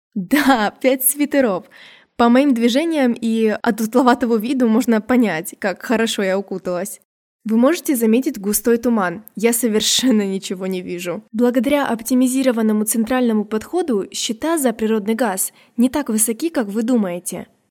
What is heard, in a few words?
Russian Voice Over theatrical